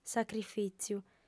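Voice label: "sacrifìtziu", female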